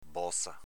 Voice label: "boza", Veneto

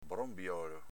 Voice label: "bronbiolo", Veneto

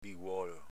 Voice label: "biguolo", Veneto

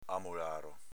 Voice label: "amolaro", Veneto; amolàro s.m. susino semiselvatico

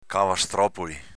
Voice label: "cavastròpoli", Veneto; s.m. cavaturaccioli

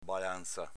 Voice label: "balanza", Veneto

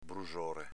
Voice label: "brusore", Veneto

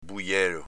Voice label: "bujelo", Veneto

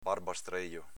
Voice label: "barbastrejo", Veneto

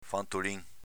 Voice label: fantolin, Veneto

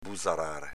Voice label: "buzarare", Veneto

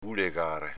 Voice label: "bulegare", Veneto

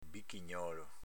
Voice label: "bichignolo", Veneto

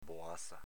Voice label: "boaza", Veneto

